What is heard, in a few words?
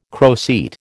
"croceate", American